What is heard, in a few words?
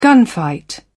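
"gunfight", British English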